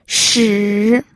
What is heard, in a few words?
"shi3", pinyin